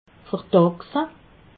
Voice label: "verdachse", alsace

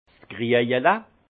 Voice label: "kriejele", alsace; vin; carafe

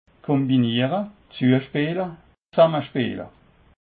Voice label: "kombinere", alsace

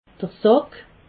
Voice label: "sack", alsace

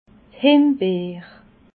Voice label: "himbeer", alsace

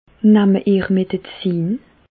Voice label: medizin, Bas Rhin; medecine; prenez vous des médicaments

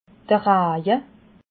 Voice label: "raje", alsace

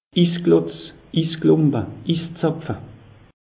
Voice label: "isklotz", alsace; meteo

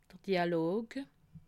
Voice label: "dialog", alsace

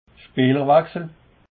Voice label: "speelerwechsel", alsace

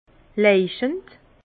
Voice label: "leuchend", Bas Rhin; vin; lumineux

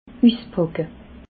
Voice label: "uspacke", alsace

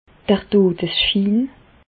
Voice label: "dodesschin", Bas Rhin; medecine; certificat de décès

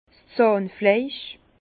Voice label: "zahnfleisch", Bas Rhin; vin; gencives

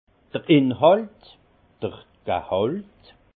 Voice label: "inhalt", alsace; vin; contenance

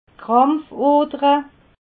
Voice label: "krampfodere", alsace

